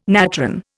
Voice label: "natron", Indian

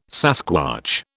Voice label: "sasquatch", British

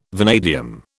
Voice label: "vanadium", British

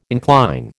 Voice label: "incline", American

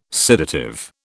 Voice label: "sedative", British

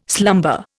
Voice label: "slumber", Indian

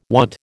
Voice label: "want", American

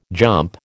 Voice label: "jump", American

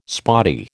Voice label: "spotty", American

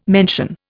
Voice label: "mention", Indian

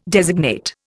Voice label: "designate", Indian

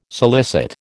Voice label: "solicit", American

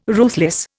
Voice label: "ruthless", Indian

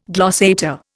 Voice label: "glossator", Indian

